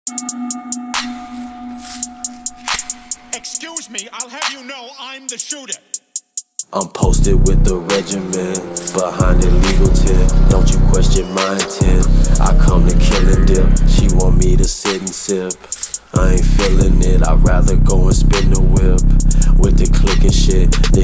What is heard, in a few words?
Commercial; Trophy Boyz Pro Club